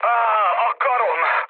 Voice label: damaged pilot, mood low, speech hun; War Thunder